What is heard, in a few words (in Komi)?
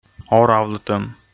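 "оравлытӧм", Komi, male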